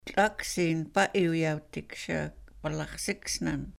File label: tl-'aksin pa'iwyawtiksha palaxsiksnan, sahaptin